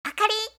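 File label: "akari", Japanese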